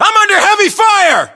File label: US inform suppressed generic, battlechatter, english